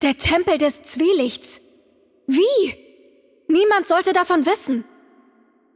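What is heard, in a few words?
German game outro; de DE; VO; bloom minigame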